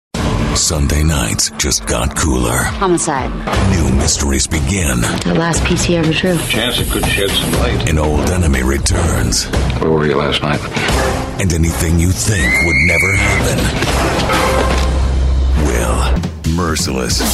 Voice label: trailer dark